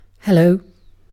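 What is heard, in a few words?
"hello", British English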